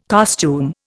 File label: "costume", Indian